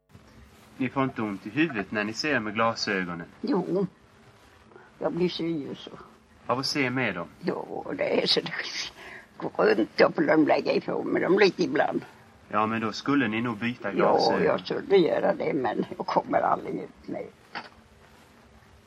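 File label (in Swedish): Vireda Småland, dialekter svenska sagor; Folkminnen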